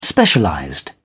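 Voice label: "specialized", British English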